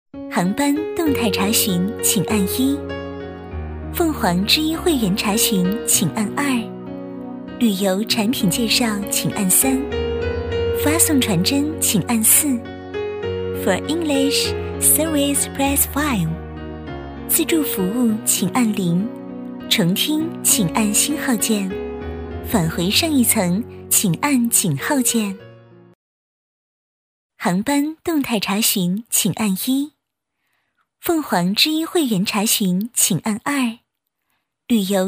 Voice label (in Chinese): 女 电话彩铃 甜美温馨 中英文